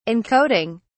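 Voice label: "encoding", British English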